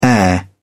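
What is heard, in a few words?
"air", British English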